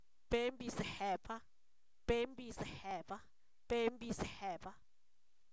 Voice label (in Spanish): huérfano, Zapoteco, san miguel albarradas; INALI